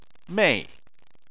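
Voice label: "mei", Chinese, fourth tone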